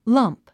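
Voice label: "lump", English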